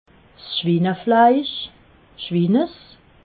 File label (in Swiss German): "schwinefleisch", alsace